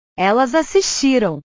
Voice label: "elas assistiram", portugues

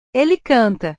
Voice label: "ele canta", portugues; cantar; portugues/verbos

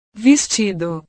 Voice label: "vestido", portugues

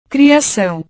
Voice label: "criacao", portugues

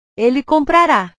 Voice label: "ele comprara", portugues; portugues/verbos

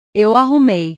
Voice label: "eu arrumei", portugues

